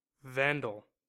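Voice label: "vandal", US English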